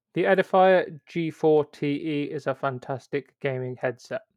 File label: Mic Recording